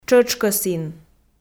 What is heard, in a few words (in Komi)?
"тшӧтшкӧсін", Komi